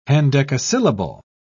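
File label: "hendecasyllable", British English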